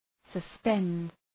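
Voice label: "suspend", English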